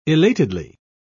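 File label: "elatedly", British English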